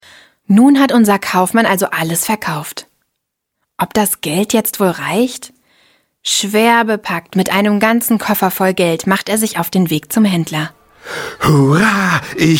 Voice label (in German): hoerspiel